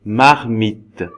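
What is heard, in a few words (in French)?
"marmite", French; phonemes; Mots francais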